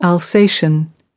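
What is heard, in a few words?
"alsatian", US English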